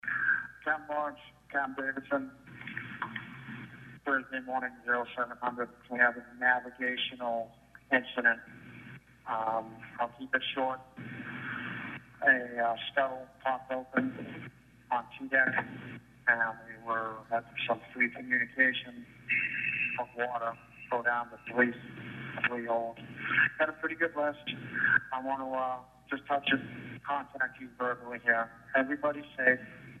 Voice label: phone call